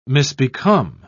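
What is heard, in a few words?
"misbecome", British English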